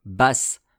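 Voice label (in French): "basse", French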